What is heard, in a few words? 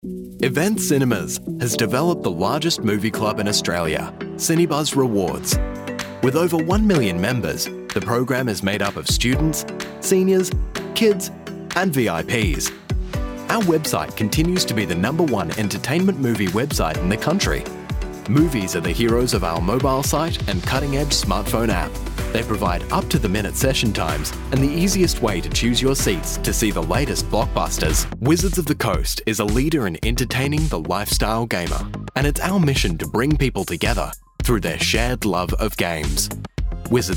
Corporate